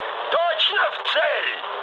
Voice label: bomb success, mood high, speech ru; War Thunder